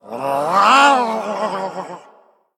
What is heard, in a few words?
Male Murloc Play